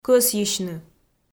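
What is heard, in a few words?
"кӧсйысьны" Komi, female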